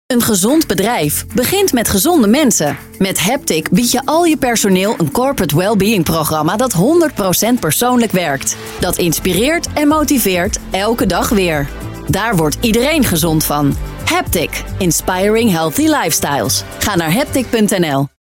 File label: radio commercial